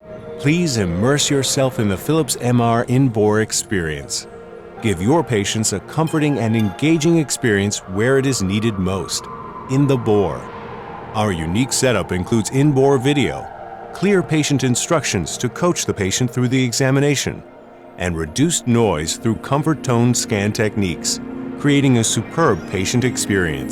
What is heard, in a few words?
Promotional